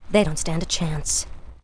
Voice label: hippogryph with rider yes attack, en

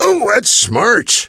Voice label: gale hurt

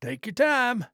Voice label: trader male like greeting

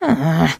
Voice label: trader monolog; 七日杀; 商人杰恩

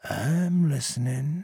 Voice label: trader male neutral greeting

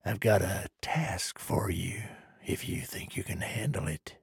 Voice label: trader male neutral quest offer

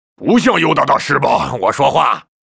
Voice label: Grunt pissed, zh; 苦工（魔兽争霸3）; 兽人

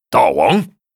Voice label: Grunt what, 苦工; 苦工（魔兽争霸3）; 兽人; Master?; 大王?

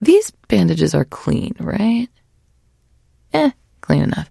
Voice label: trader monolog; 商人杰恩（七日杀）

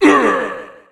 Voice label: 8bit hurt vo

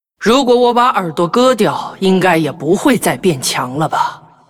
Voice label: Hero female demon hunter pissed, zh

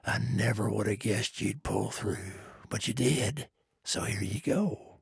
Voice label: trader male neutral quest complete

